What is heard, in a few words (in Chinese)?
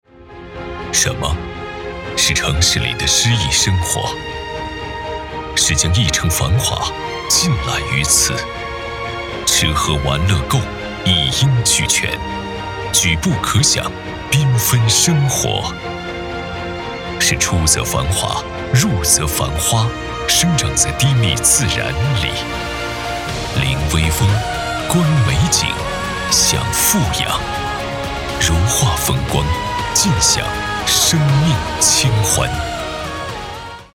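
广告类洋房品鉴片; 玉兰九赋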